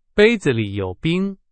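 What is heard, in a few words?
Chinese, example sentence; hsk4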